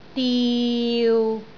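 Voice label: "diu6", Cantonese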